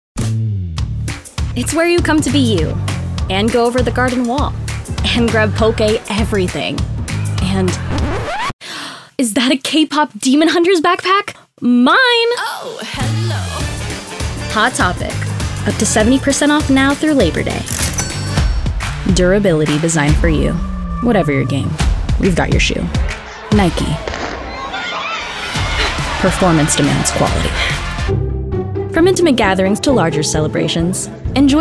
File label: Commericial